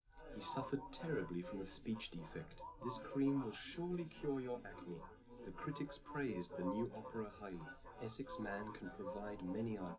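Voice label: male, live recording; SiSEC11; underdetermined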